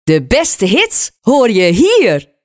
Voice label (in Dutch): De beste hits hoor je hier (zonder SFX), jingles